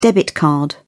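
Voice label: "debit card", British English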